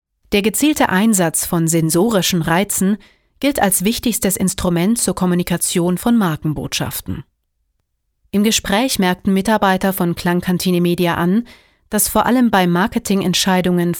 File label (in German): sachlich